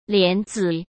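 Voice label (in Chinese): "帘子" Mandarin Chinese; chinese-flashcards; hsk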